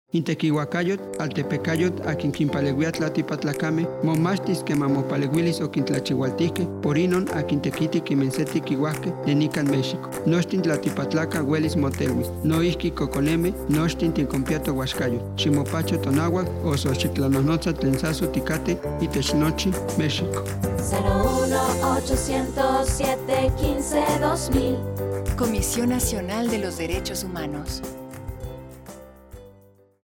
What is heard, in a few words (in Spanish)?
Spot nahuatl; Campanas; CNDH